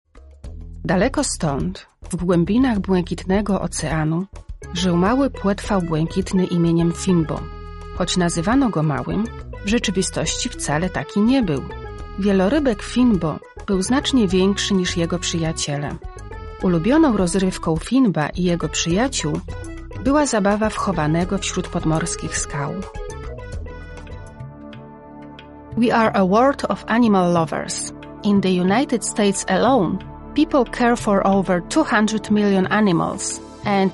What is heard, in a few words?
Polish F